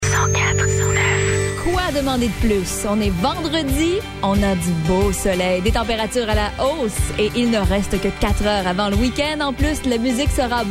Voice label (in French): voix femmes